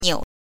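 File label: "niu", Mandarin Chinese pinyin, neutral tone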